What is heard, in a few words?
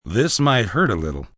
Hurt A Little, Doctor, male: 'This might hurt a little'; medical, hospital, doctor's office; human voice speaking; Talking